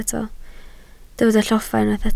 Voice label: "lloffa", Welsh

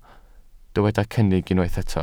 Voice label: "cynnig", welsh

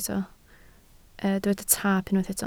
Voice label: "taap", Welsh

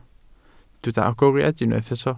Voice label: "agoriad", Welsh

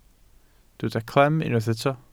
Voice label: "clem", Welsh

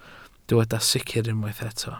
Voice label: "sicr", Welsh

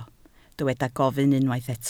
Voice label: "gofyn", Welsh

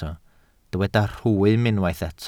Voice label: "rhwym", Welsh

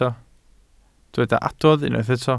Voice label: "adrodd", Welsh

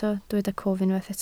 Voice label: "cof", Welsh

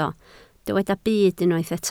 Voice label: "byd", Welsh

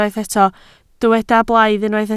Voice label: "blaidd", Welsh

